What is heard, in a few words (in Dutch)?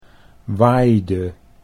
"waaide", Dutch